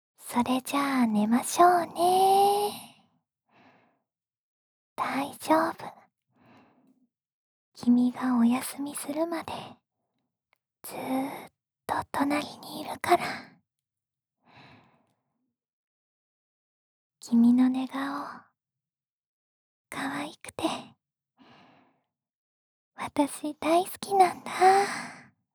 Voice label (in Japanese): 幼稚園児扱い甘々セリフ